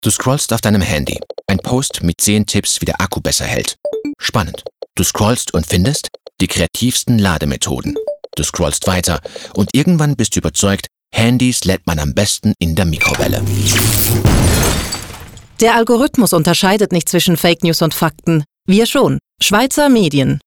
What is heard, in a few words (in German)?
radio; Gefährliche Ladung; chmedien